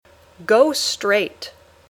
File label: "Go straight", English